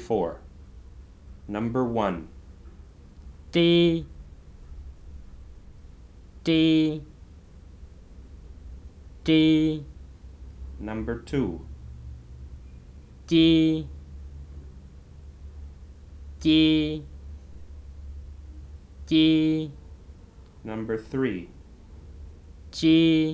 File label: WHITE MIAO Nonsense Contrast